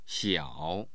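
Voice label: "xiao3", Mandarin Chinese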